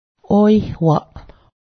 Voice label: fault, Cayuga